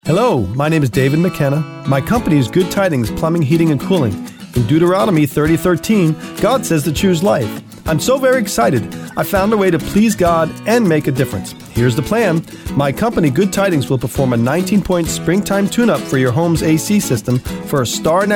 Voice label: Radio Spot; GTI